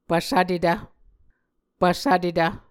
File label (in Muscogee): "pvsvtetv", Muscogee